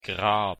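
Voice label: pronunciation "grab", German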